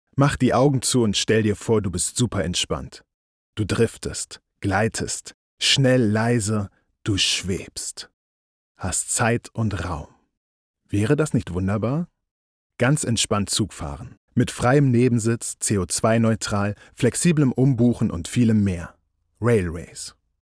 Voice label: Werbung

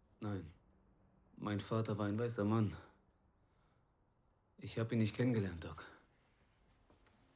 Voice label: nachdenklich; Synchron; Regie: Marius Clarén

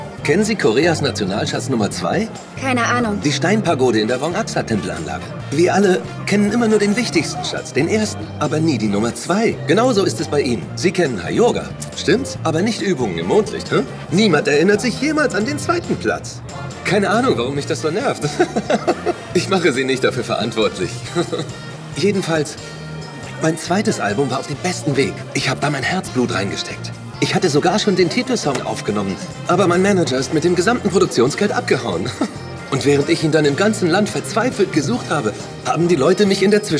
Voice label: DE Synchron froh lebendig

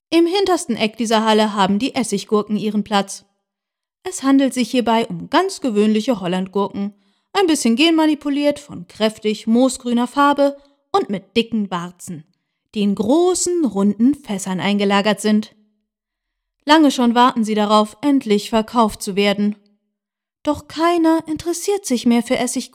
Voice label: Hörbuch Märchen

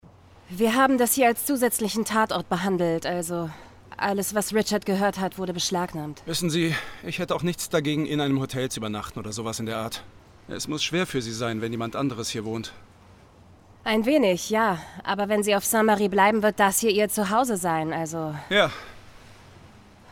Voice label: Synchron Dialog

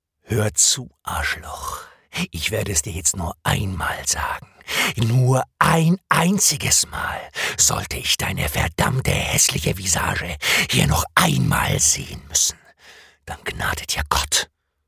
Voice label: bedrohlich

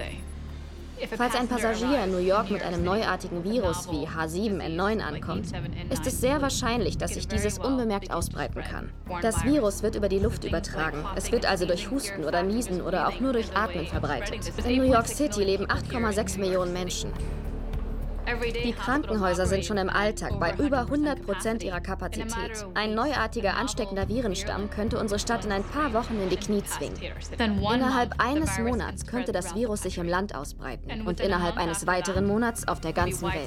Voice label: Doku Pandemie

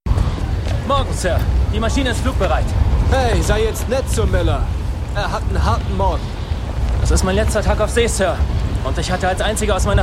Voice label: Synchron; Midway

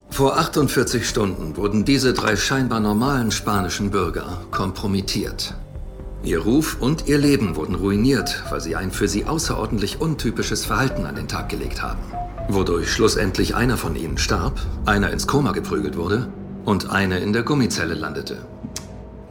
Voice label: DE Synchron CIA Agent Cool

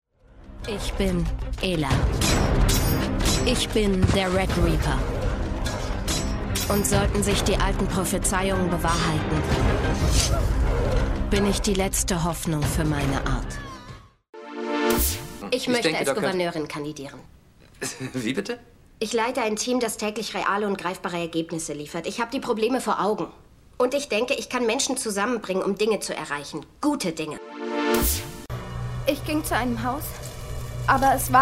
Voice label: Synchron Mix

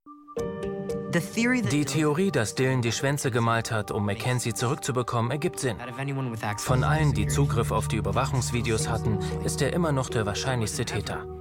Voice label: Doku

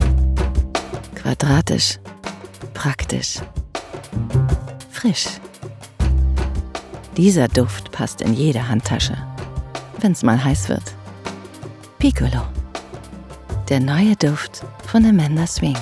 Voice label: Werbung; Piccolo; sexy Stimme

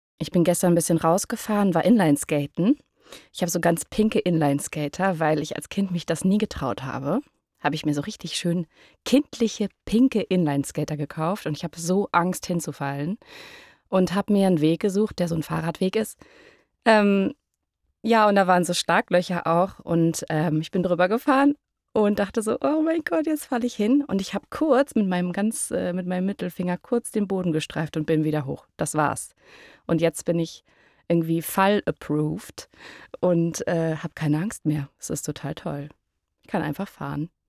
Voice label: Neutrale Stimme

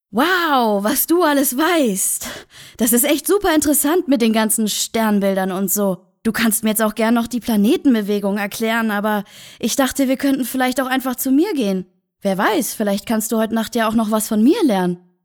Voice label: Synchron Ironisch; Loftstudios

